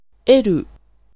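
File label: Japanese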